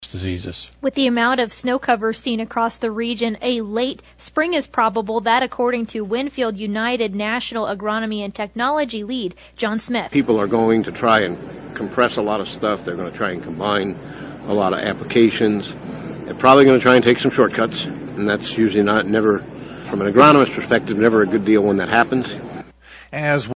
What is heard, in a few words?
air check; WinField United